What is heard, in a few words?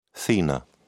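"thenar", British English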